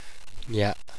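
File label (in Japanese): "gya", Japanese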